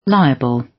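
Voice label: "liable", British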